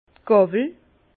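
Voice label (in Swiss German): "gawel", Alsace Bas Rhin; vin; vrille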